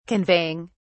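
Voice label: "conveying", British English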